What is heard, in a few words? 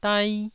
"dai1", Taiwanese syllable